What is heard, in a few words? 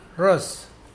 Mokilese dark